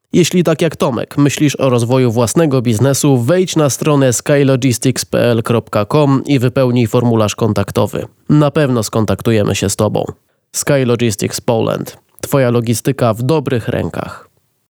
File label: Polish